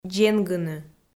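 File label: "дзенгыны", Komi, female; kpv; audio dict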